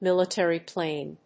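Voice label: "military plane", English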